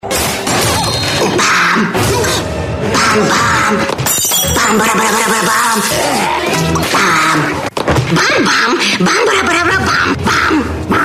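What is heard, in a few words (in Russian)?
reklama tnt, advert; tnt komedia dupleks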